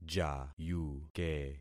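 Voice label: "jayuke", concatenated syllables; Affixing experiment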